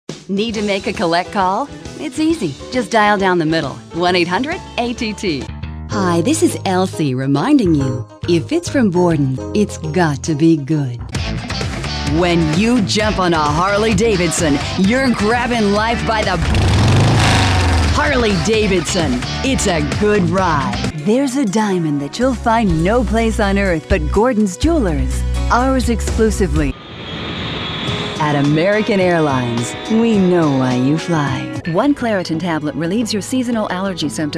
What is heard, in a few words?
voice commercial